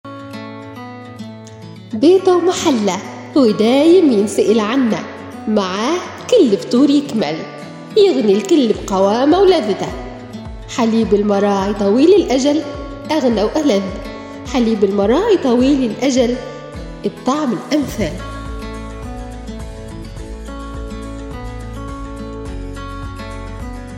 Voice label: Voice Over